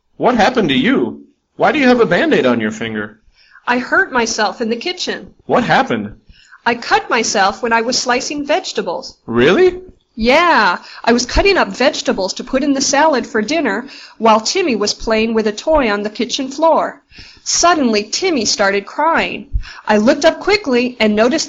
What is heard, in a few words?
Dialog